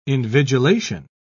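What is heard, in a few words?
"invigilation", British English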